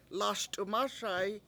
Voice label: lASt\$1m ASa7i I will hide it, Washo

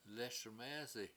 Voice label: "lES1mEEsi", washo; Im not singing

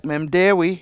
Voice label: "memdEEwi", washo; Deer

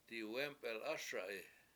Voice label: I will dig hole, Washo; diwEmpelASa7i

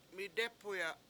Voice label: "midEpuya7", washo; I stab you

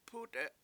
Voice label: "p\$UUte7", washo; mosquito

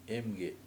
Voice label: cutthroat fish, Washo

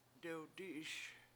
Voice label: "dewdI7iS", Washo; tree

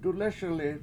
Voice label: He Put His Hand Out, Washo; dulES1li

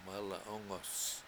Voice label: "mala7Ongos", washo; Handicapped Person

